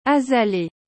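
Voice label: "azalee", French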